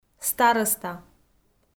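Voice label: "старӧста", Komi-Zyrian, female; audio dict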